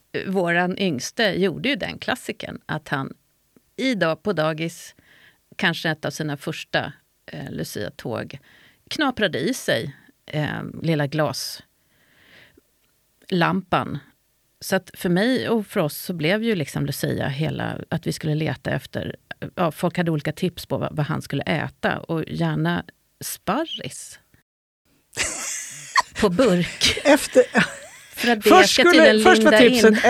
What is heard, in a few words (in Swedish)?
julkalender vuxen podcast format